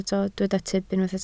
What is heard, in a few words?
"tyb", Welsh